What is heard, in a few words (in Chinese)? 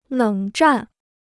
"冷战", Chinese